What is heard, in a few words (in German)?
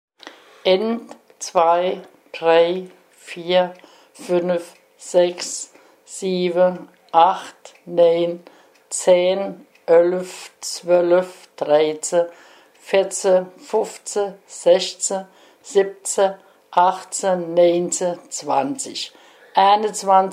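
zahlen, Hunsrück; Belg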